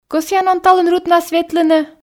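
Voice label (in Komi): кӧсъян он талун рытнас ветлыны, Komi, female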